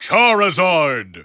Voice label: Charizard English Announcer; SSBB

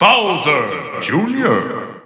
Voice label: English Announcer; Bowser Jr.; SSB4; SSBU

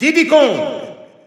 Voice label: French EU Announcer; Diddy Kong; SSBU

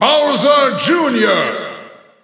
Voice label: German Announcer; Bowser Jr.; SSBU

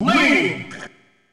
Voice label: Link Announcer; SSB

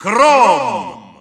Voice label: Russian Announcer; Chrom; SSBU

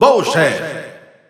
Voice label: Bowser Spanish Announcer; SSBU

